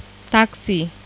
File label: taksi